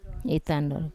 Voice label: "itandor", Wamesa; I wake up